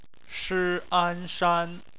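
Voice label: "shan" bopomofo, Chinese; phonetics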